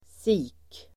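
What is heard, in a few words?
"sik", Swedish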